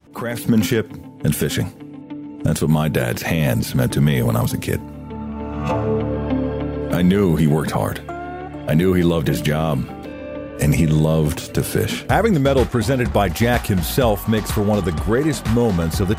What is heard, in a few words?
American Male